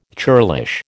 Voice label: "churlish", American

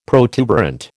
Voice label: "protuberant", American

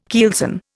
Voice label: "keelson", Indian

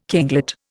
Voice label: "kinglet", Indian

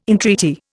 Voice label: "entreaty", Indian

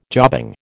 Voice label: "jobbing", American

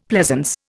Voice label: "pleasance", Indian; Dictionary

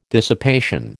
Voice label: "dissipation", American

